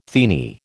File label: "teeny", American